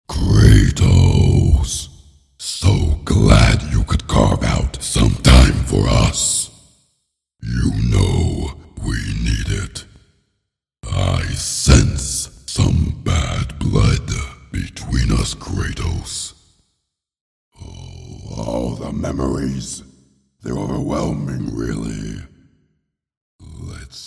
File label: Monologue, en-GB; Hades